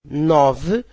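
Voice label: "nove", Portuguese, female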